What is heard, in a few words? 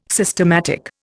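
"systematic", Indian English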